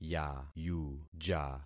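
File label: "yayuja", concatenated syllables; Affixing experiment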